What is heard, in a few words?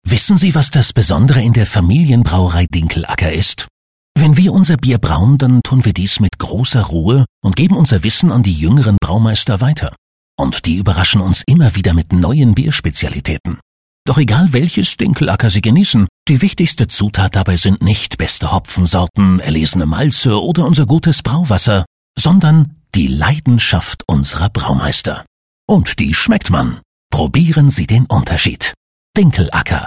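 German, male, commercial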